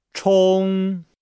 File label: "chong", Nihao